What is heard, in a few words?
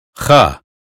us phonetics sound loch; 英语音标发音（剑桥版）